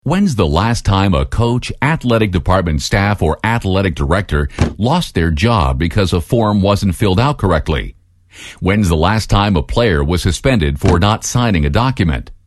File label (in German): Imagefilme Profi Publikum; NATIVE PROFISPRECHER